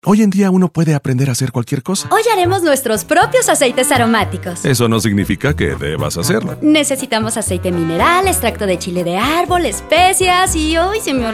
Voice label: radio ad spanish; consumer advertising campaign; aceites